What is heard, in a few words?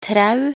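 "trau", Norwegian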